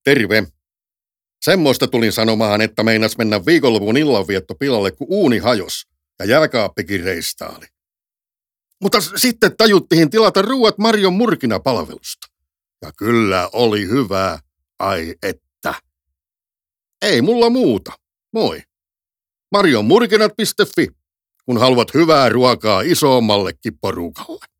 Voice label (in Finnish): radio